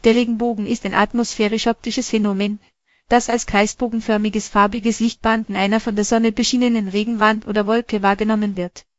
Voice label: speaker, de_DE; piper-voices